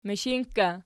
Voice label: "mishinkka", Archi

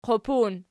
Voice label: "qupun", Archi

